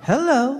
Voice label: "hello", voice